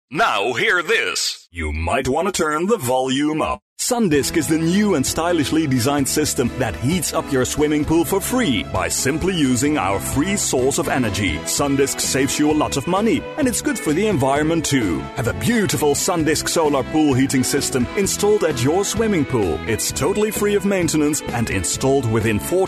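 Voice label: Dutch English, male, sales, accent of the netherlands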